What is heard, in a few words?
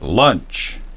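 "lunch", English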